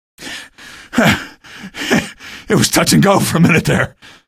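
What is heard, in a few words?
citizen evac thanks, male; vo; npc; source engine; episodic; episode 1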